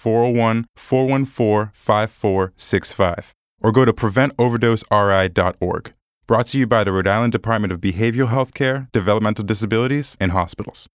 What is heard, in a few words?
Buprenorphine Radio; BHDDH MAT